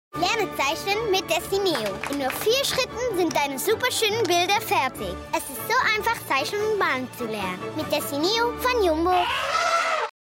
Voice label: TV Sport German; Dessineo von Jumbo